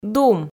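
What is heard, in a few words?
"дум", Komi, female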